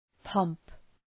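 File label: "pomp", English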